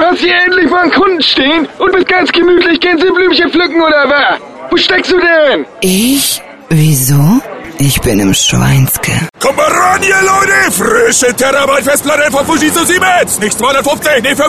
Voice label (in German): Hamburg Dialekt